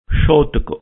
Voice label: "Shotoku", Buddhism

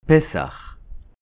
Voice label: "Pesach", Hebrew

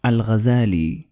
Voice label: "Al-Ghazzali", Arabic

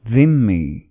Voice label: "Dhimmi", Arabic

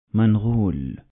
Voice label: "Mongol", Arabic

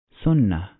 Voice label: "Sunna", Arabic

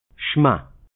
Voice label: "Shema", Hebrew

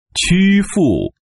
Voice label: "Qufu", Chinese

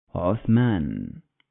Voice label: "Uthman", Arabic

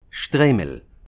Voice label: "Shtreimel", Hebrew

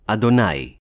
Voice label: "adonai", Hebrew

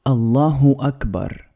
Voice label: "Allahu Akbar" Arabic

